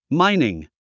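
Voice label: "mining", English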